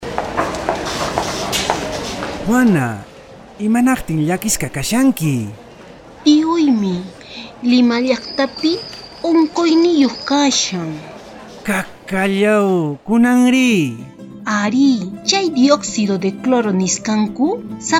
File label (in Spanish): Spot Automedicacion COVID